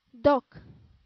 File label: "dog", English